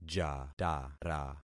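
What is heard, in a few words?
"jatara", concatenated syllables; Affixing experiment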